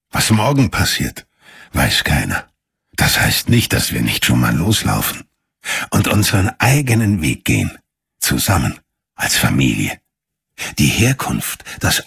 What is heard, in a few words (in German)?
Mann mono